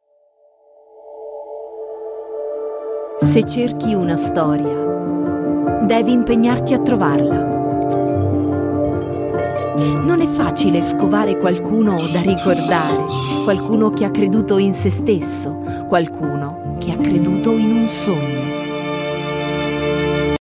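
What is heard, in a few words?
italian female corporate, Bright Italian Female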